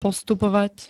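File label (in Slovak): "postupovať", Slovak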